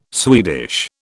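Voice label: "Swedish", British English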